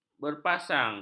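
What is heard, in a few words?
"berpasang", Malay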